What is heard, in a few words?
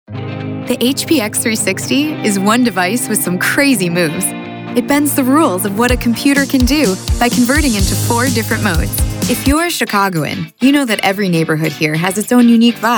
Voiceover, Commercial, Women; chicago